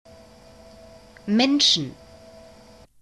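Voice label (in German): "menschen", German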